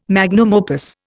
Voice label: "magnum opus", Indian

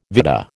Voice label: "vitta", American

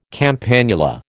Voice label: "campanula", American

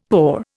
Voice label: "poor", Indian; Dictionary

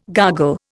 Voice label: "gargle", Indian

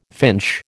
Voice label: "finch", American

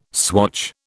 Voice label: "swatch", British

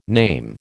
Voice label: "name", American

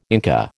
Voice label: "Inca", American

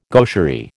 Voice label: "gaucherie", American

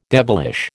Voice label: "devilish", American

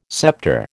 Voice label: "scepter", American

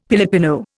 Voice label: "Pilipino", Indian; Dictionary

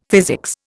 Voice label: "physics", Indian; Dictionary

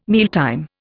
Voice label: "mealtime", Indian

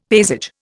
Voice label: "paysage", Indian; Dictionary

